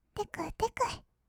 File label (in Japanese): "てくてく", kawaii voice; 1200 kawaii voice!!; 色々なワンショット